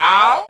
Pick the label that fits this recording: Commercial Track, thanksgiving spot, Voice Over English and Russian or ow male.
ow male